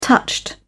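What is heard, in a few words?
"touched", English, British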